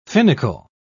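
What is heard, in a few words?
"finical", British English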